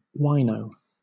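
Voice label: "wino", British English; pronunciations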